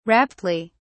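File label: "raptly", British English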